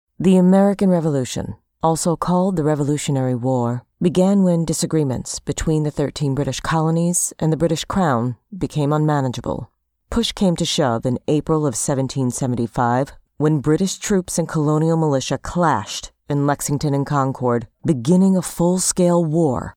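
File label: English US female narration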